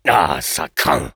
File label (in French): Engineer negative vocalization, fr